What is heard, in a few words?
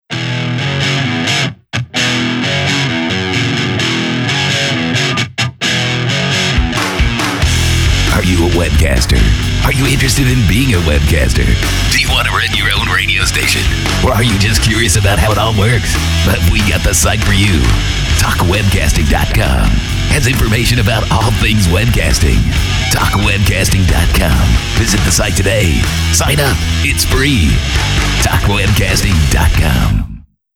Ad classic rock feel